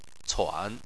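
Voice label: "cuan" third tone, Chinese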